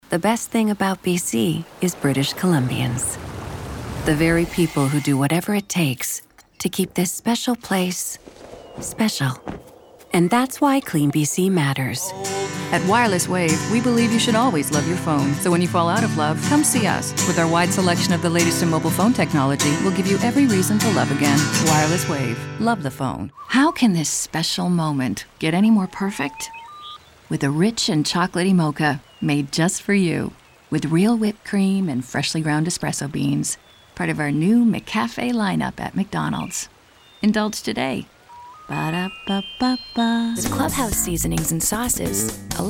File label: commercial